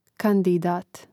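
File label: "kandidat", Croatian; Mrežnik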